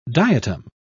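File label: "diatom", British English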